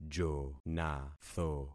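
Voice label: "jonatho", concatenated syllables; Affixing experiment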